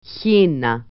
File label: "goose", greek